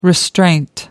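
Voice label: "restraint", English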